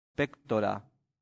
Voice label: "pectora", latin; santillana